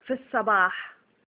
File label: Arabic